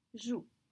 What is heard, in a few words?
"joues", French